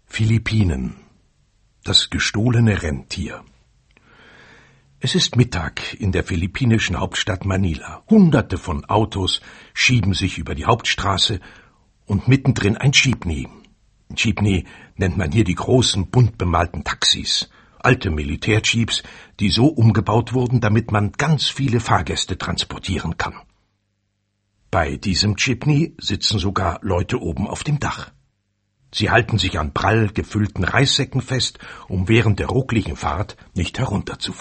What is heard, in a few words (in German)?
Hörbuch; Philippinen